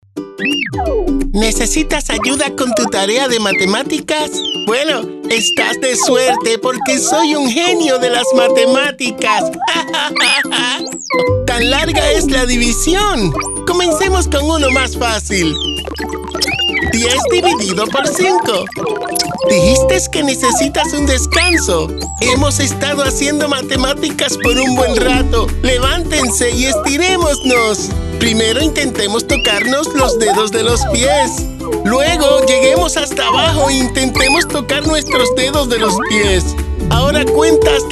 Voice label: spanish puerto rican animation